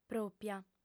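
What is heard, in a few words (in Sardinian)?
"pròpia", female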